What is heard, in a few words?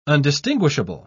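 "undistinguishable", British English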